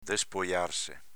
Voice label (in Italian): "despojarse", Veneto; despojārse v.rifl. spogliarsi